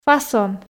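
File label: "фасон", Komi, female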